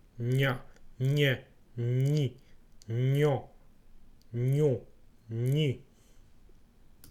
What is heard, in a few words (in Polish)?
"ń", fonetyka